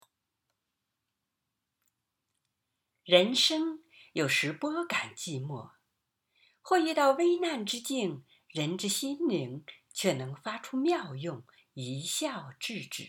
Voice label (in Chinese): 干音; 论解嘲